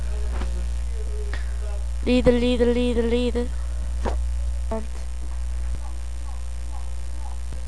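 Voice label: "lead", spoken word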